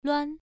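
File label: "lüan", Mandarin Chinese syllable, first tone; pronunciation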